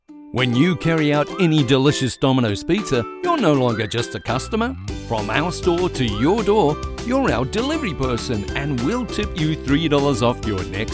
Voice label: Commercial Demo